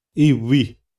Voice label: "ĩwi", xavante